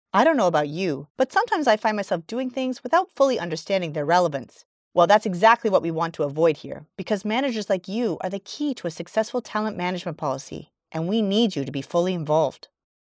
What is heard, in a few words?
locutora inglesa canada canadian english voiceover